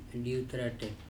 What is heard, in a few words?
"Diu tara TET" sundile shell, andamanese